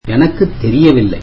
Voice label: tamil I dont know